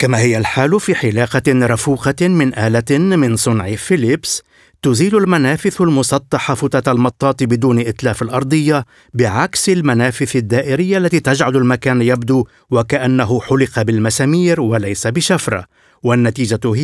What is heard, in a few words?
m